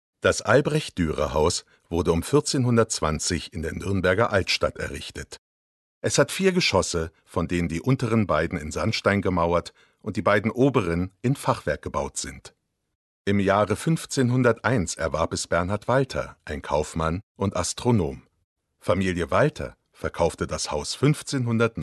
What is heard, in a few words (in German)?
Doku; Albrecht Duerer